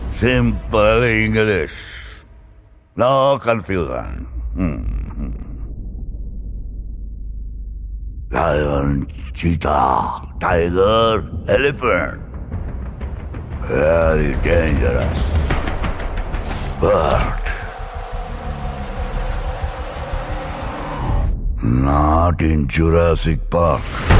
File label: SIMPLE ENGLISH NO CONFUSION RINGTONE